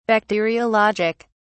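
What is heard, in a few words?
"bacteriologic", British English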